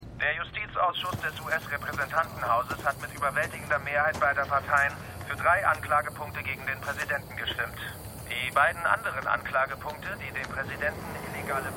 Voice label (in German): Nachrichtensprecher; Gaslit